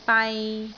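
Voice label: "bai3", Cantonese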